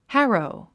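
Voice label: "harrow", English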